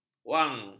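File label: "wang", Malay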